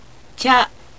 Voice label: "cha", Japanese